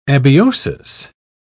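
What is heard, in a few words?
"abiosis", British English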